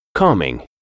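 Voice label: "calming", English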